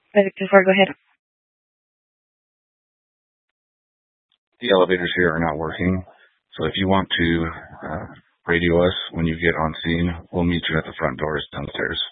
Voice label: Fire Departments Mesa Simulcast; TOPAZ